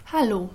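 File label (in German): "Hallo", German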